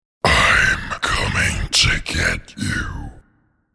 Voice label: I'm Coming to get you spoken in deep monster voice; Evil Voices; Horror